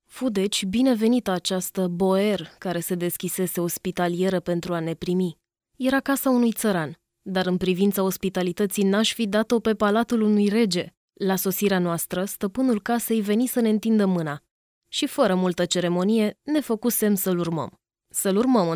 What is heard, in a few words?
female romanian voice over character